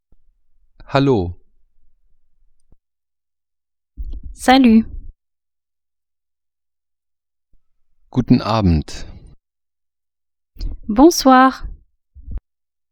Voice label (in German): franzoesisch